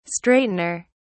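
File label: "straightener", British English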